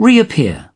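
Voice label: "reappear", British English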